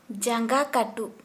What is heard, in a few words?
Santali, toe